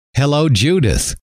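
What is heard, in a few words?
hello, male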